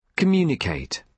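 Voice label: "communicate", British English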